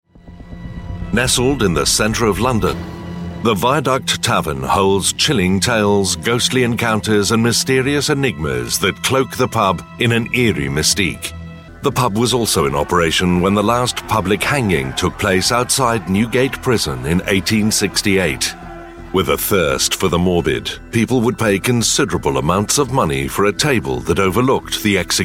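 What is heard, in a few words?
documentary, male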